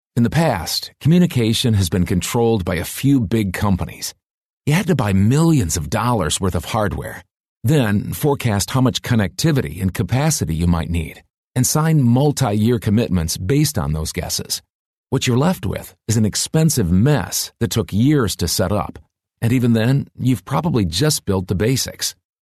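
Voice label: US English, male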